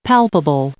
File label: "palpable", English; adjective